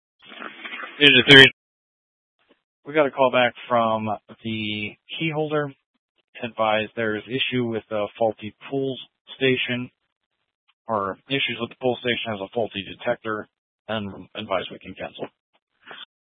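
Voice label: Fire Dispatch, scanner audio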